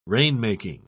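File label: "rainmaking", British English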